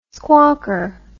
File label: "squawker", English